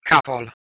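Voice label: "kh2pol", Proto-Indo-European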